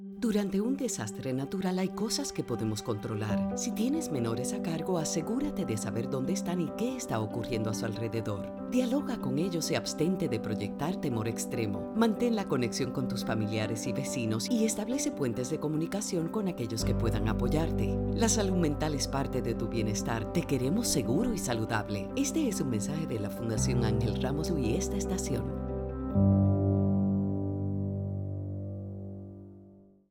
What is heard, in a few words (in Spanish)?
PSA Consejos Radio; FAR